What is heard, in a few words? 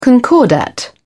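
"concordat", British English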